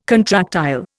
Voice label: "contractile", Indian